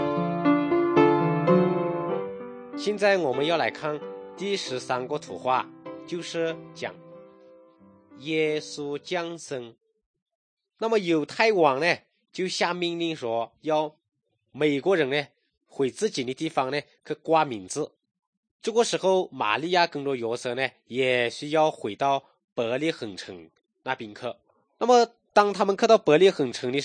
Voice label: good news shan, drama